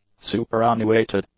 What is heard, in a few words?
"superannuated", English; adjectives